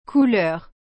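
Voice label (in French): "couleur", French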